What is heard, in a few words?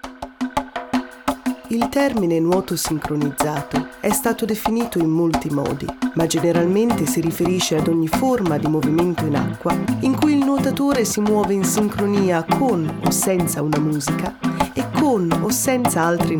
Corporate Italian English